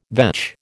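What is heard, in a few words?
"vetch", American English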